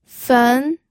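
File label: "fen", pinyin, second tone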